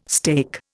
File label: "steak", Indian